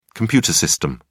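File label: "computer system", English, British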